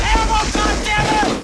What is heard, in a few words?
us need ammo; dod; dod 1.3; dod enhancement pack